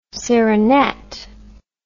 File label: "serinette", English